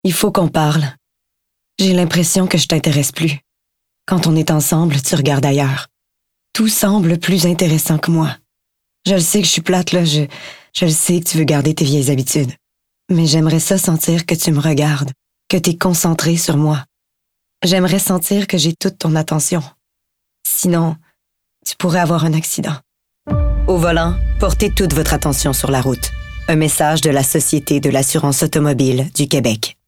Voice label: voice acting